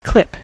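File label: "clip", American English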